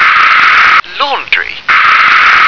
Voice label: "laundry", English